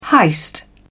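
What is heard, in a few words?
"heist", British English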